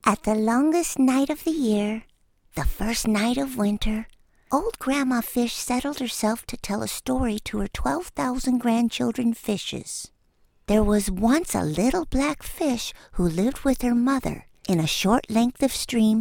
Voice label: audiobooks child